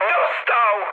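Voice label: Polish aircraft destroyed mood high; speech; War Thunder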